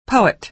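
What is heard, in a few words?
"poet", American English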